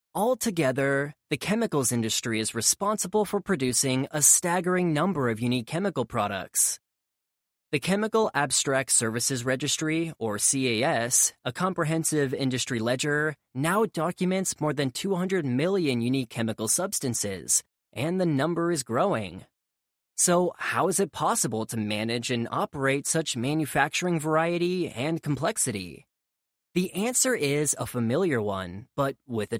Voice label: training modules

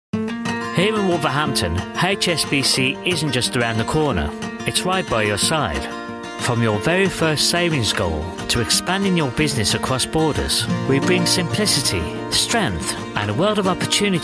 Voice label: ad; hsbc

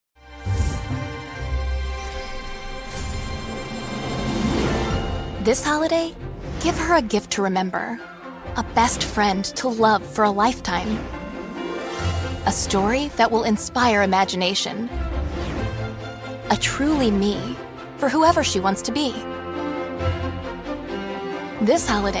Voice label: american girl commercial

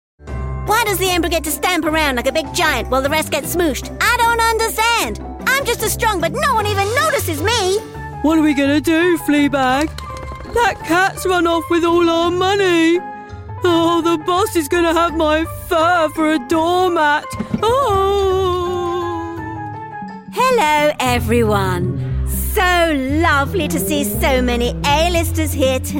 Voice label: animation

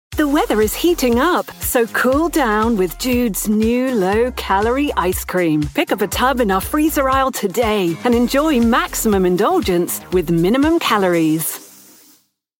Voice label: commercial; sainsburys

